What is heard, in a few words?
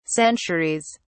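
"centuries", British English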